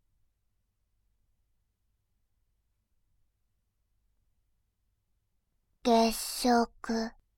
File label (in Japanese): 月食, バイノーラル左, ASMR